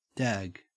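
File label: "dag", Australian English; pronunciations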